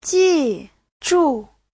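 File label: "jìzhù", Mandarin Chinese; 记住 : ghi nhớ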